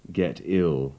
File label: "get ill", English